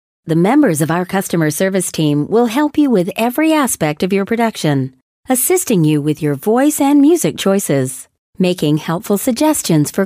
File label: Female